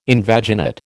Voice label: "invaginate", American

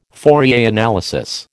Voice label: "Fourier analysis", American

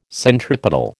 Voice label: "centripetal", American

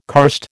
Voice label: "karst", American

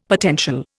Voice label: "potential", Indian; Dictionary

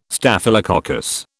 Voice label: "Staphylococcus", British

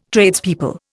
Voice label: "Tradespeople", Indian

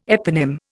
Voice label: "eponym", Indian

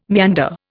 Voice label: "meander", Indian

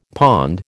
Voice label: "pond", American

